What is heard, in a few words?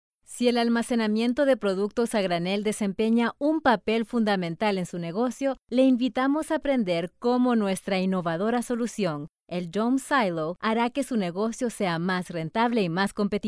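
spanish narration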